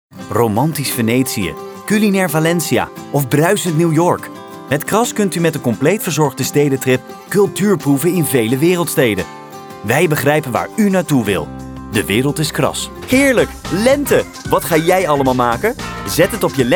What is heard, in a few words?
voice-over